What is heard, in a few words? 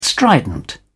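"strident", English, British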